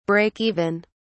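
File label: "break even", British English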